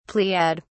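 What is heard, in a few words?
"pleiad", British English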